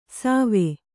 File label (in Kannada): "ಸವೆ", Kannada